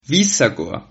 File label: please, Sylt Frisian